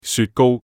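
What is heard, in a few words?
Cantonese "雪糕"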